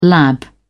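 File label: "lab", British English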